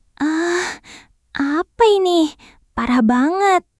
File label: indonesian phrases